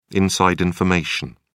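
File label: "inside information", British English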